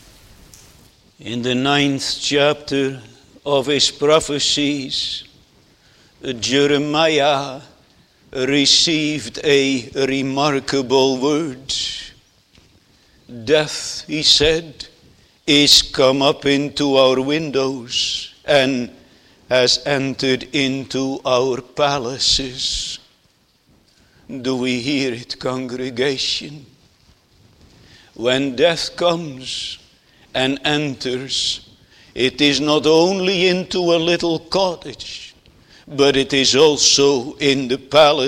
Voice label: Sermon; Jesus' Burial